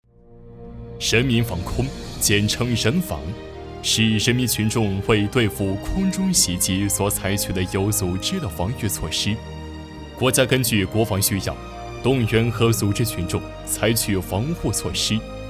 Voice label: 大气讲述; 人民防空

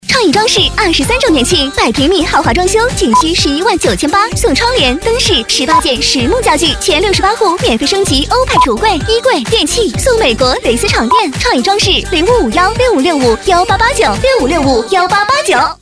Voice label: 促销

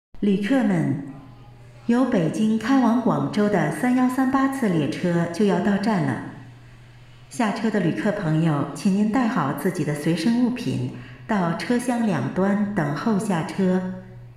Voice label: 中文播报

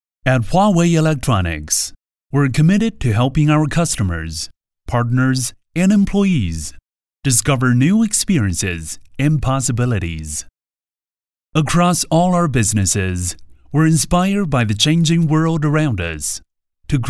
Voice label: 英文宣传片

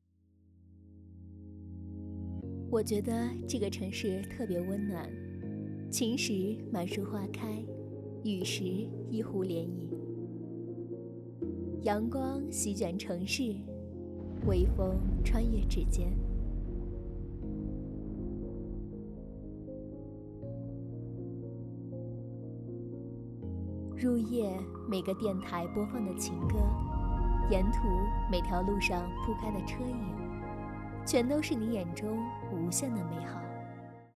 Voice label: 宣传片缩混

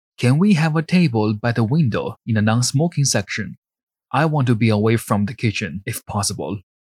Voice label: 餐饮预定男声

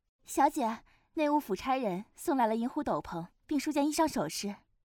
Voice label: 少女稳重丫鬟

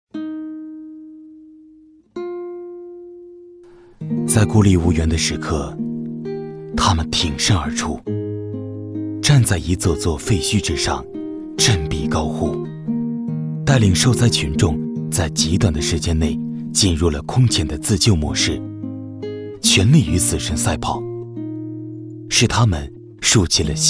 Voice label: 深情旁白

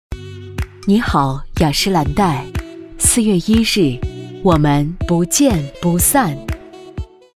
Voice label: 广告复古; 你好雅诗兰黛

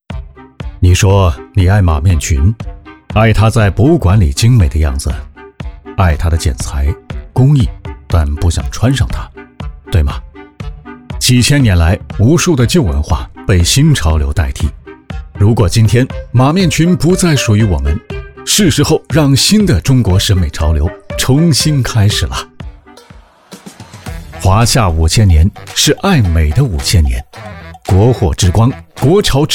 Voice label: 展宣幽默态度; 国货之光

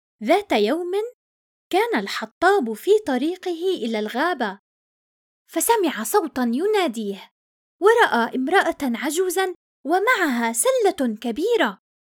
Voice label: 阿拉伯语女

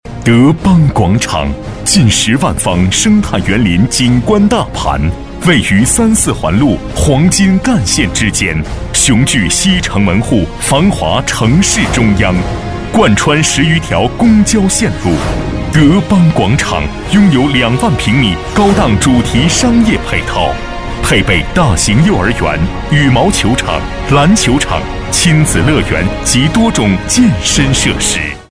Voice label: 恢弘大气地产类; 德邦广场

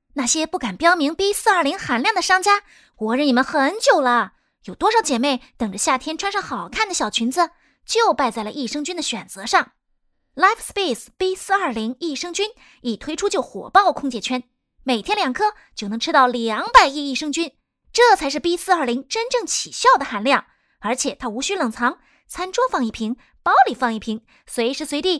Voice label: 信息流 电商广告 偏调皮吐槽 无噪点

